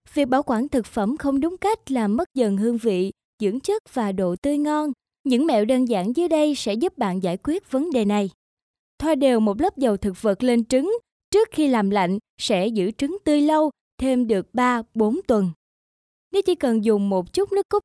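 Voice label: Vietnamese, female; vietnamese voice over talent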